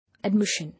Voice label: "Admission", English

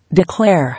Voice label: "Declare", English

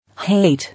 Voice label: "Hate", English

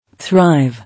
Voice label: "Thrive", English

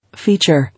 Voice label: "Feature", English

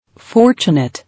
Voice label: "Fortunate", English

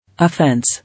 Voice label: "Offence", English

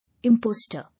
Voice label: "Imposter", English

